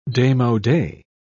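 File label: "demode", British English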